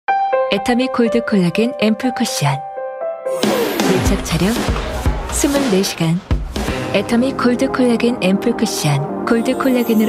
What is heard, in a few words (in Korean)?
광고 차분한 목소리